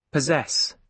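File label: "possess" English, British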